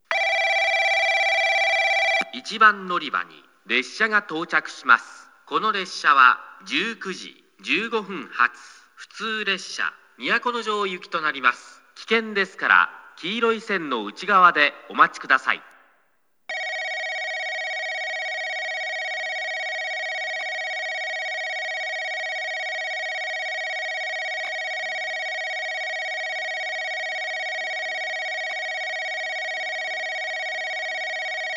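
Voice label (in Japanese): 1番のりば接近放送（折り返し・都城行き）, 男声放送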